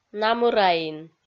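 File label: "намыраин", Komi-Zyrian, female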